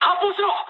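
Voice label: Japanese attack position mood high; War Thunder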